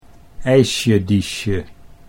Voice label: "eisjediesje", Dutch